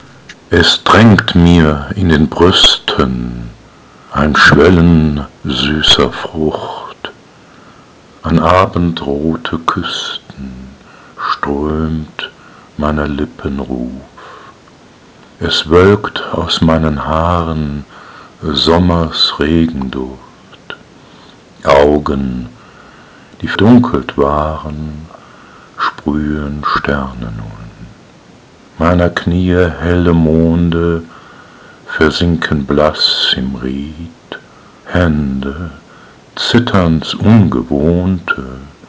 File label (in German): Die weibliche Stimme